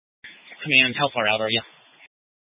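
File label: Incident Tactical 1, Scanner audio recording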